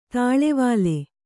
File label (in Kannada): "ತಳ್ಳಿವಲೆ", kannada